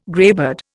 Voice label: "greybeard", Indian